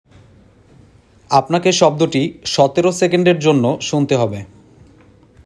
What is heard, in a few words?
male sound given